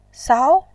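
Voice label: "sao", Mandarin Chinese, second tone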